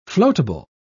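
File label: "floatable", British English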